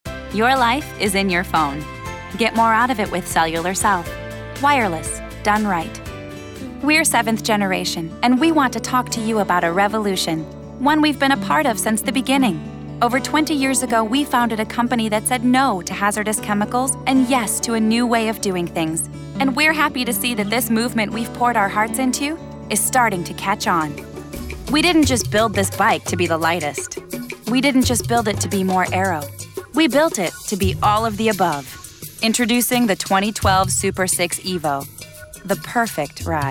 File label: Female, commercial